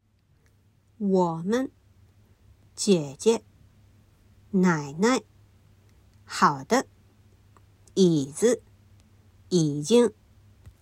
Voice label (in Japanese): 三声軽声